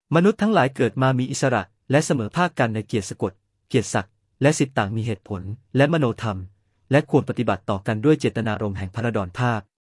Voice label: AI Male Thai